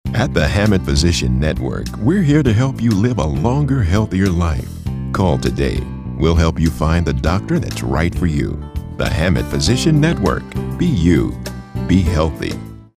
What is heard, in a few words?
caring